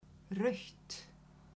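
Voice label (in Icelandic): "rautt", Icelandic, female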